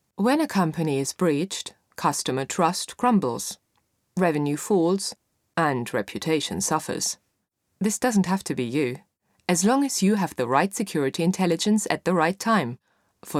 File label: female German English with German accent corporate